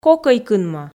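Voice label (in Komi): кокӧй кынмӧ, Komi, female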